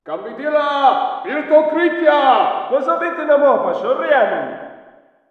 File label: walla rus mountain battle